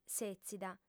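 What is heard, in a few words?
"sètzida", female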